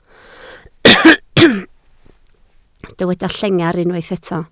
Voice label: "llengar", Welsh